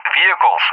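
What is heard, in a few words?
"vehicles", English; JTAC; Target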